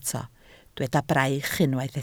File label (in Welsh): "braich", Welsh